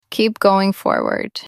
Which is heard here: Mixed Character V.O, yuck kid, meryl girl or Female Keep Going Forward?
Female Keep Going Forward